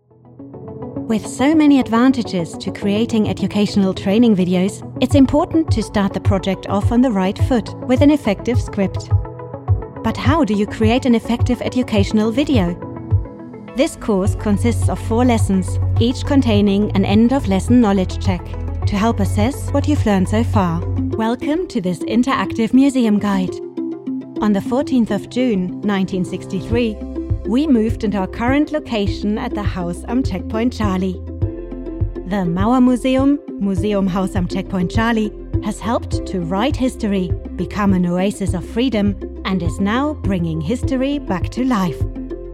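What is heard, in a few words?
English Narrative; GoLocalise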